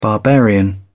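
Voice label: "barbarian", British English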